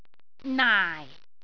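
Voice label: "naai4", Cantonese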